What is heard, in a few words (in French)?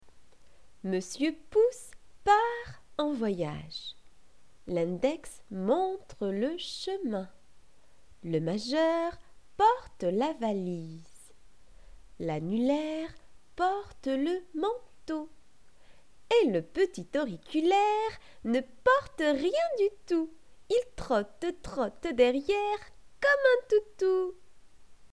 Monsieur Pouce part en voyage voix